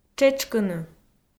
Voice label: "тшетшкыны", Komi

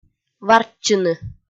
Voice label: "варччыны" kpv f

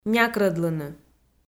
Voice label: "някрӧдлыны", Komi

